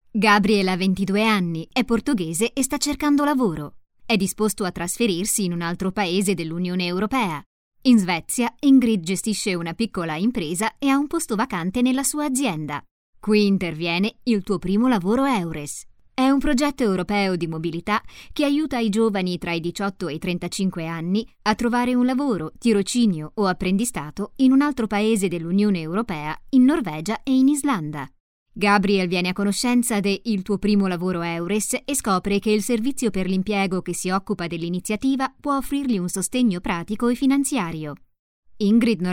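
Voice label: narration italian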